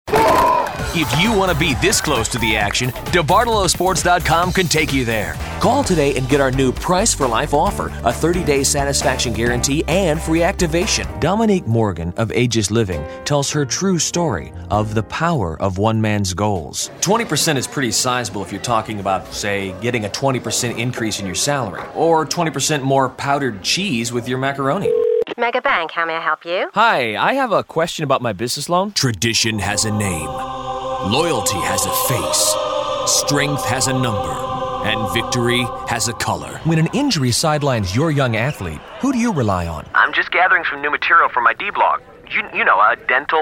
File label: Commercial